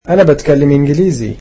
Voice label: "I speak English", egyptian

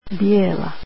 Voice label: "white" croatian

